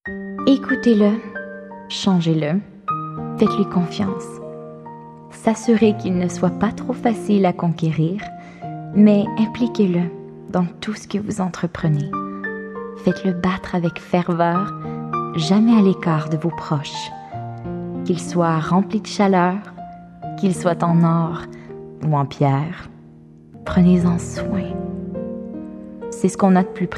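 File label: French Canadian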